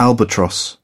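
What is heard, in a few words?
"albatross", British English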